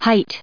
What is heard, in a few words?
"height", en us